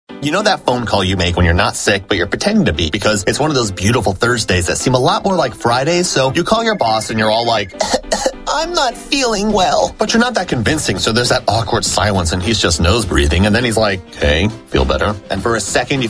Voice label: english american voice over male